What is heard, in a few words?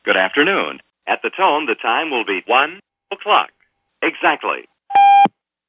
audio USA; TIM 2015; self contained time of day announcement device